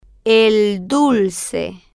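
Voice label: el dulce, Spanish, slow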